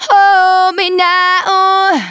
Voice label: Phrase Female; Vengeance Samples; Phrases

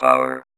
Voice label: Vocoder Words; Vengeance Samples

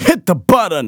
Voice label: Phrase Male Rap; Vengeance Samples

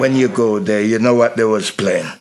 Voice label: LoFi Phrases, LoFi Vocals; Vengeance Samples

